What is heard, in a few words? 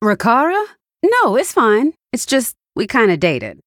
Female Human; Bacon Shop Bob; The Lich King